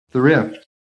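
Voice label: "thrift", English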